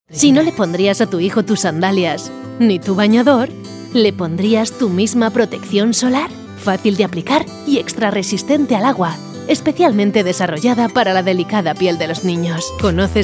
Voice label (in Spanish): Femenino